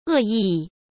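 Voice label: "恶意", Mandarin Chinese; hsk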